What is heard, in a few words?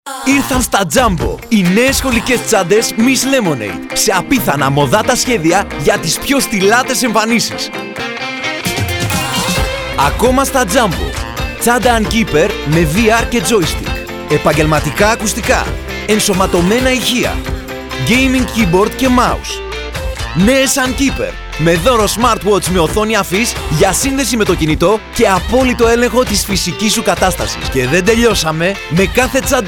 commercial young; JUMBO tsantes